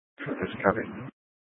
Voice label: Mesa PD Dispatch; Fiesta